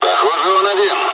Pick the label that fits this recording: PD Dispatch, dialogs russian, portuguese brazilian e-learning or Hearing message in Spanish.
dialogs russian